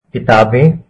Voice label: books, hindi